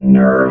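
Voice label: "nerve", robot voice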